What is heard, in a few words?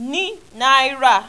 "N NAIRA", Yoruba; ALPHABET RHYME IN BITS